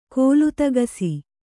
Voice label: "ಕಾಲ್ತೆಗಿಸು", Kannada